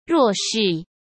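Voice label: "弱势", Mandarin Chinese; hsk; chinese-flashcards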